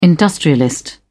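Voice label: "industrialist", British English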